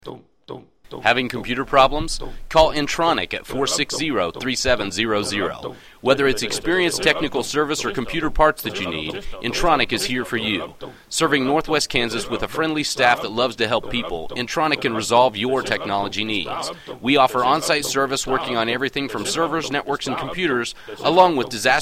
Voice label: commercial